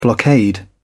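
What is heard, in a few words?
"blockade", British English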